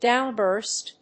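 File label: "Downburst", English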